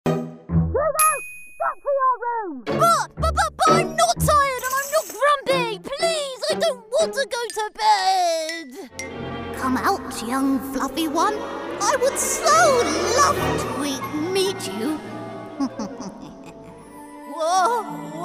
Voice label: animation character